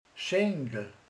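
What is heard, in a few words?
"comb", Hungarian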